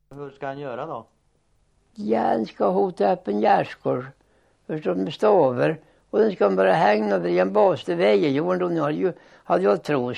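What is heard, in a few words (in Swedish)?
Västra Eneby Östergötland, dialekter; Folkminnen; Svenska sagor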